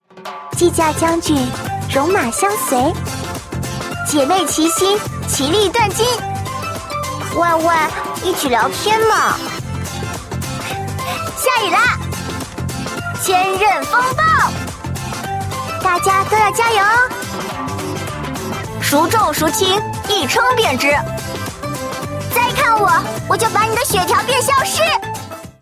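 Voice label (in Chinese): zhengtai luoli, shota loli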